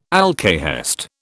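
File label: "alkahest", British English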